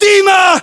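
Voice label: "dima", battlechatter, Russian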